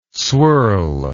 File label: "swirl", English word